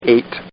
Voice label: Man Says, Talking; Voice; Partners In Rhyme